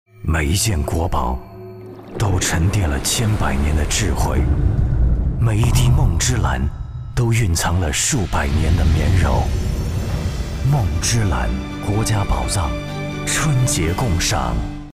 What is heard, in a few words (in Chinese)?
男; 洋河梦之蓝-国家宝藏篇